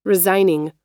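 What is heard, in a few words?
"resigning", American English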